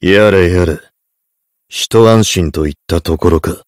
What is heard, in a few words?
heavy cheers